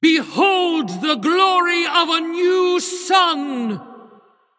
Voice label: Female Elemental Play; card sounds